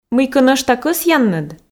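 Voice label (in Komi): мыйко нӧшта кӧсъянныд, Komi, female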